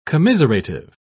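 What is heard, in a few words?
"commiserative", English, British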